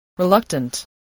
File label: "reluctant", spelling voice